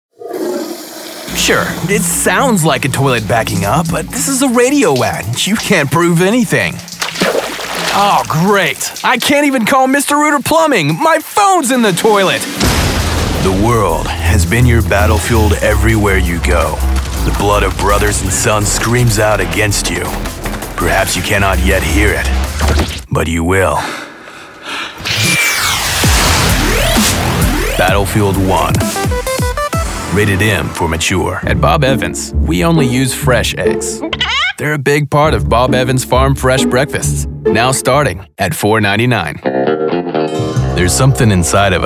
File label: commercial